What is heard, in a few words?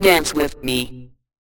Robot Voice